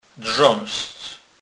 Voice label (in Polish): "drzazdz", kurpiow